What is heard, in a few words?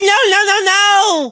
hurt vo